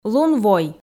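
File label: "лун-вой", Komi, female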